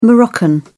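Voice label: "moroccan", British English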